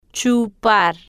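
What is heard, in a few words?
"chupar", Spanish, female, slow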